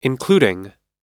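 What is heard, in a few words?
"including", American English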